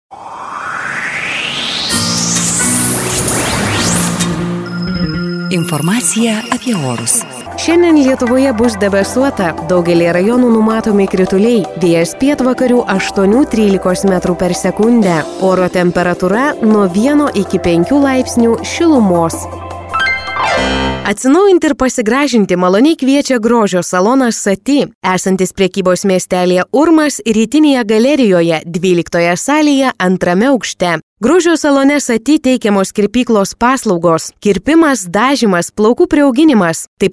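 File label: lithuanian female commercial; voice demos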